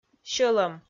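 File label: "сьӧлӧм", Komi, female; kpv; audio dict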